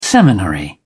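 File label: "seminary", English, British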